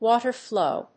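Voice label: "WATER FLOW", English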